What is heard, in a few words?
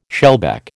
"shellback", American